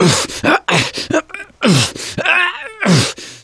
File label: choke, voice; gambler